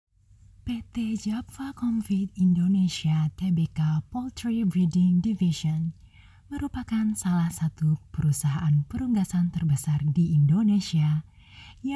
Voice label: Bahasa formal